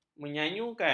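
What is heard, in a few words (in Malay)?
"menyayukan", Malay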